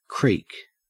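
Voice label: "creek", US English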